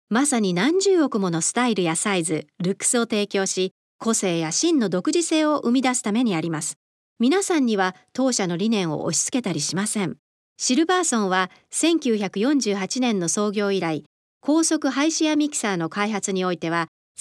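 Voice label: Japanese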